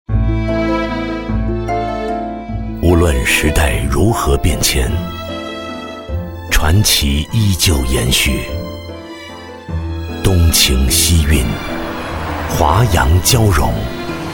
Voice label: VOICES ENGLISH Male Adult; KERSOUND

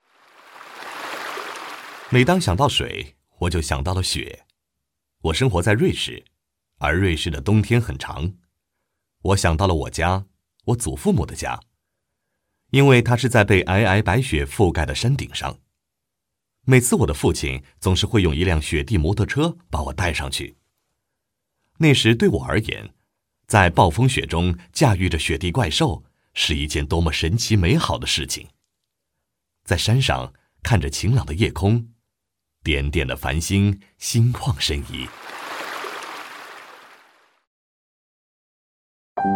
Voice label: voices CHINESE male adult MANDARIN; KER-SOUND

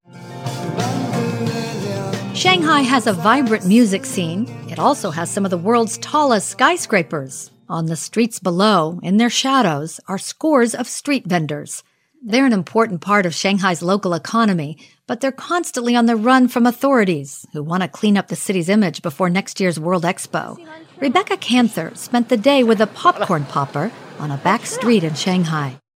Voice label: voices ENGLISH female adult USA; KER-SOUND

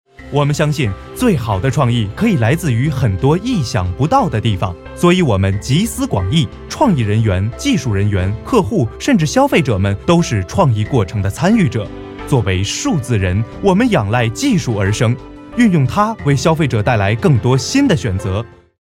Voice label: voices CHINESE male adult MANDARIN; KER-SOUND

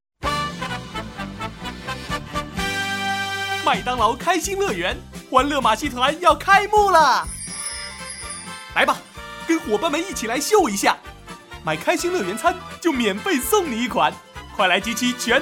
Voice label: MANDARIN Male Adult; Ker Sound Studio Shanghai China

